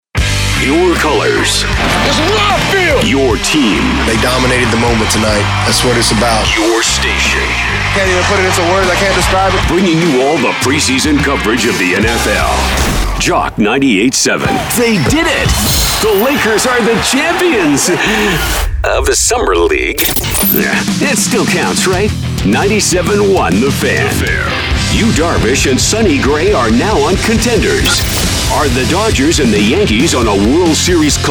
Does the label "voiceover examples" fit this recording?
Yes